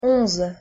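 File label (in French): "onze" French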